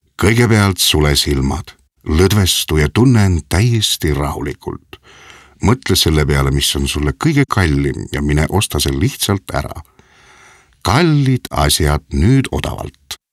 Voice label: Estonca Erkek; seslendirme

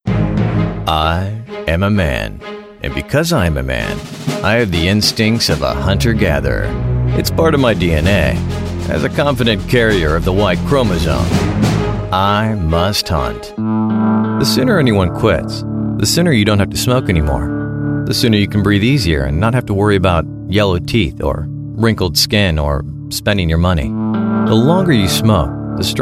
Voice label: Amerikan Ingilizcesi Erkek

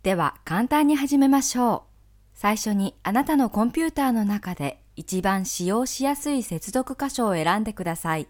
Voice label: Japonca Kadin; seslendirme

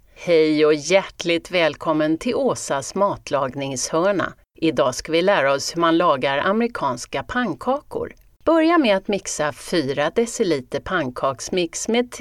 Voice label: Isvecce Kadin; seslendirme

